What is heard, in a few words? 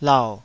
"lau3", Taiwanese syllable